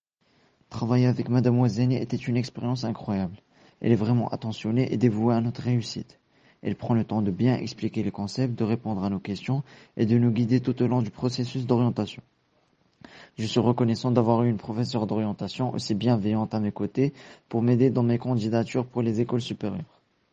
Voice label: Male Clean Compressed